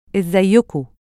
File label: "izzaayuuku", Egyptian Arabic